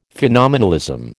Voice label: "phenomenalism", American

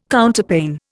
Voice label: "Counterpane", Indian

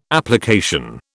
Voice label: "application", British

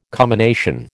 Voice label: "commination", American

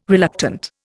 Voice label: "reluctant", Indian

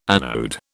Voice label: "anode", British

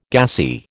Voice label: "gassy", American

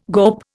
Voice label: "GOP", Indian

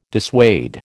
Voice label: "dissuade", American

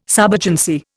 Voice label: "subagency", Indian

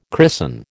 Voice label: "christen", American

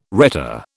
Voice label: "rhetor", British

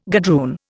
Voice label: "gadroon", Indian

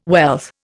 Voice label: "wealth", Indian

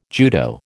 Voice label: "judo", American

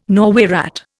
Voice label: "Norway rat", Indian

